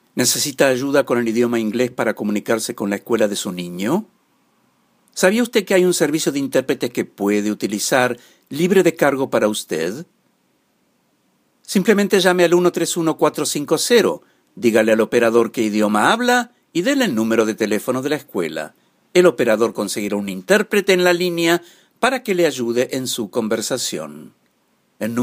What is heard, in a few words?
spanish; public schools; going to a public school